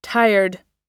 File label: "tired", pronunciation